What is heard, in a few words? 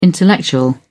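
"intellectual", British English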